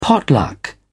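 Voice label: "pot luck", British English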